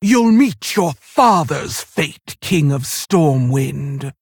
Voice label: Female Orc